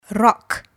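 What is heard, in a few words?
"rock", English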